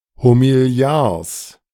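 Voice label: "Homiliars", German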